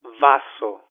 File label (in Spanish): "vaso", filt, telephone